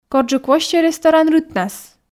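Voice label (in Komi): корджык воссьӧ ресторан рытнас, Komi, female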